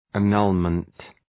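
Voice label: "annulment", English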